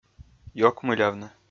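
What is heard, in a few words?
"ёкмылявны", Komi, male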